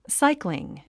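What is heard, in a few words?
"cycling", English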